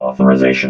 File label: "authorisation", robot vox